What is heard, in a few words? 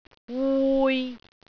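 "wui6", Cantonese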